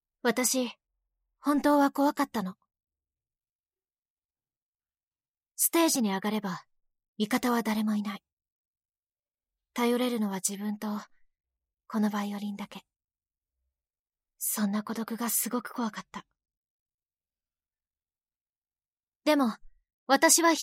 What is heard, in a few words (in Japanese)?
クール女子高生